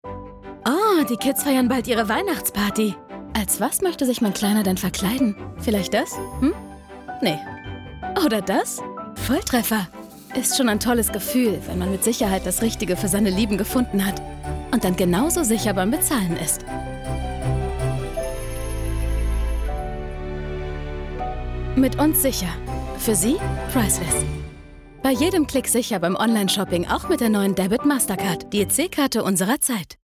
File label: TV Spot; Mastercard